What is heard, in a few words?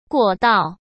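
"过道", Mandarin Chinese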